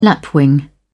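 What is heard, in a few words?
"lapwing", British English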